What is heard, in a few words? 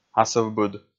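"асывбыд", Komi, male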